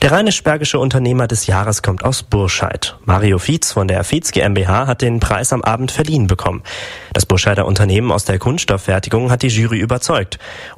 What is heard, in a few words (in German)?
radio nachrichten; radio berg